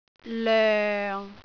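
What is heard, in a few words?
"loeng6", Cantonese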